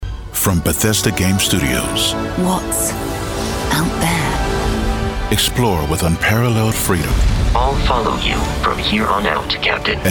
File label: Movie Trailer